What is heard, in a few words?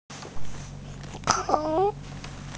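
"kou3", Mandarin Chinese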